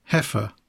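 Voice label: "heifer", English; poitiers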